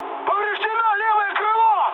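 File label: Russian left wing mood high, speech; War Thunder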